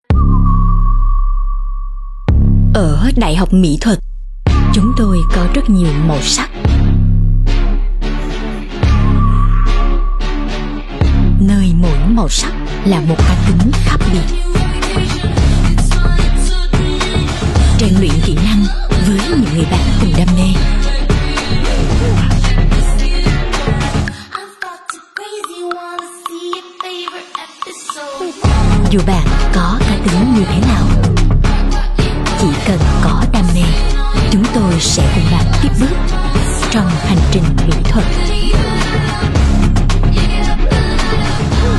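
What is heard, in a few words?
South TVC Female, Vietnamese; DH Mỹ Thuật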